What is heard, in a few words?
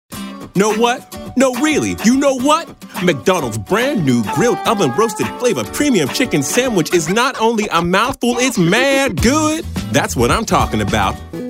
Commercial, Voice Over